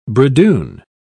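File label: "bridoon", English, British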